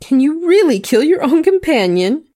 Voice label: Female Constable combat taunt; SyrinScape; Missing Magistrate